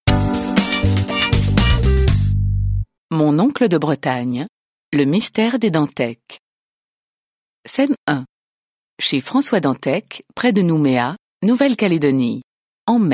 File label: French